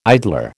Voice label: "idler", American

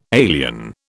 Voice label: "alien", British

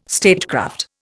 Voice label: "statecraft", Indian

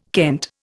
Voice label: "kent", Indian

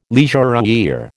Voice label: "leisurewear", American

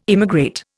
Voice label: "immigrate", Indian

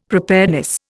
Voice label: "preparedness", Indian; Dictionary

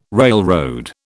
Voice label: "railroad", British

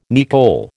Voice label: "nicol", American

